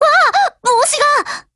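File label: BREAK, Japanese; GF Alarm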